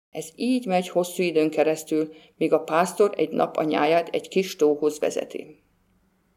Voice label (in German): ungarisch